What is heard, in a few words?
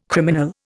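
"criminal", Indian English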